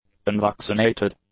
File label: "unvaccinated", english; adjectives